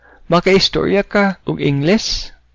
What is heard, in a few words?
do you speak english, cebuano